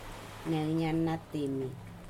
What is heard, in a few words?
footprints human, Aneityum